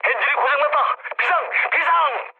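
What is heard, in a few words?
Korean damaged engine mood low, speech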